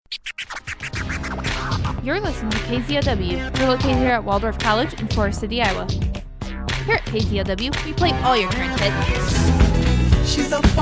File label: Station Promo